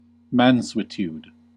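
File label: "mansuetude", US English; pronunciations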